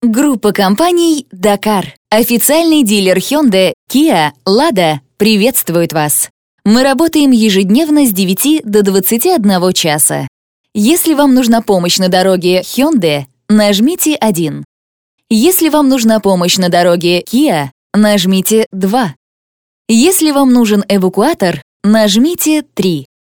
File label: IVR